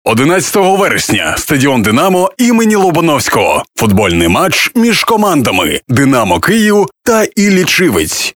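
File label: Ukrainian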